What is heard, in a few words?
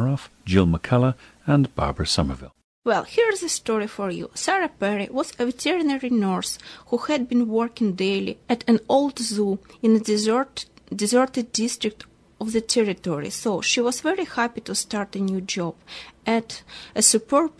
belarus, dialects